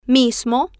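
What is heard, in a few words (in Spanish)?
"mismo", Spanish, female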